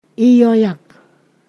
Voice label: "shook", Ponosakan